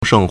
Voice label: "sheng4", Chinese

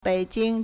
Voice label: "北京 běijīng", Chinese; proper noun

